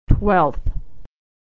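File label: "twelfth", American English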